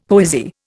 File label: "poesy", Indian; Dictionary